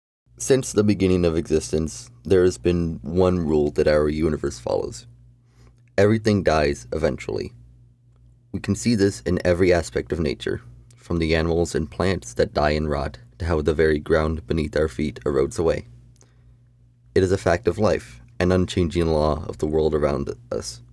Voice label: Narration